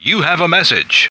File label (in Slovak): sms hlásky en